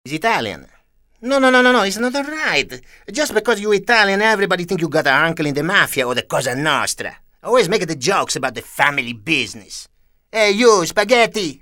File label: ITALIAN